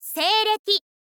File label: info girl